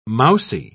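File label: "mousey", British English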